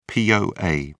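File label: "poa", British English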